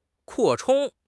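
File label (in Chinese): "扩充", Chinese; HSK6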